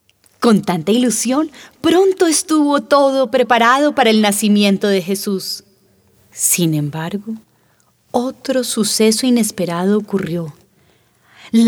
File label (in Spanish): Narración; Al Alba